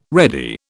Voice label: "ready", British

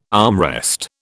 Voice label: "armrest", British

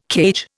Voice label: "cage", Indian

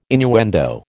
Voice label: "innuendo", American

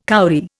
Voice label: "kauri", Indian

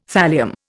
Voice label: "thallium", Indian

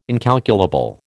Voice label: "incalculable", American

